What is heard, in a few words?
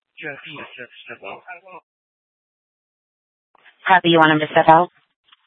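Police Department North Dispatch, scanner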